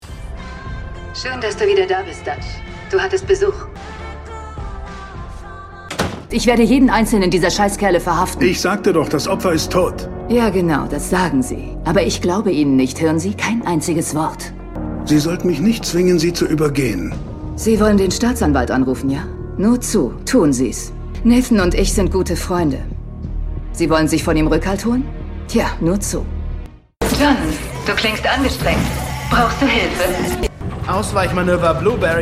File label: German video games